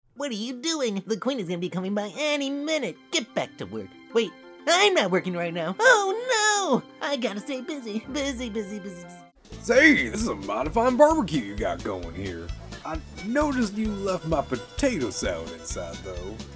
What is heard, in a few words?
Animation VO